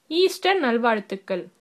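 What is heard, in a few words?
easter, female, tamil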